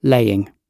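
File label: "laying", British English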